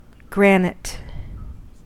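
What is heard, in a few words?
"granite", US English; pronunciations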